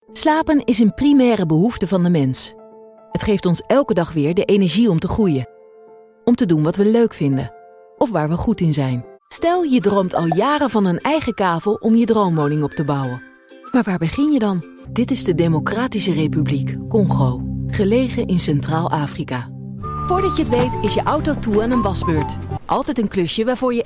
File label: Corporate